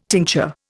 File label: "tincture", Indian